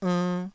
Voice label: "ernn2", taiwanese syllables